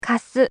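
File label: "kasu", Japanese; nakama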